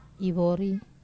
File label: "vori", Wamesa